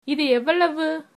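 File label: how much, tamil, female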